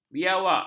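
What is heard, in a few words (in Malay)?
"biawak", Malay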